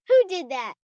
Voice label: Who Did That, Questions, 1 child, 6 yrs.; Talking; Voice Box

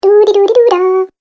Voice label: Cartoon Game Voice "Doodedoodedooda"; Cartoon Voice Sound Effects

